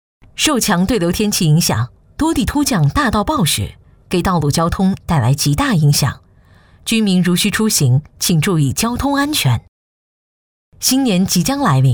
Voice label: 女 新闻感 新年回顾; 新闻风格样音

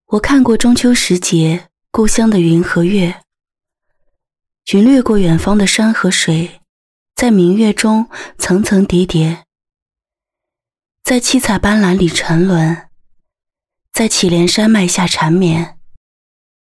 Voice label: 女 旁白 走心节日; 中秋时节

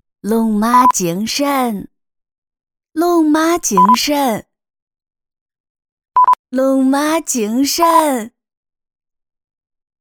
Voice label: 河南方言 女 河南话奶奶 龙年祝福; 方言配音

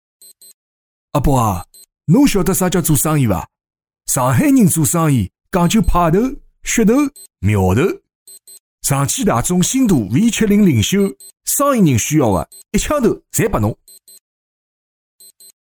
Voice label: 上海方言 男 上海话 上海爷叔; 方言配音; 上汽大通新途V70·领秀

